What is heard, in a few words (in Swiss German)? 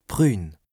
"brün", Alsace, BAS RHIN; brun / marron; lexique indispensable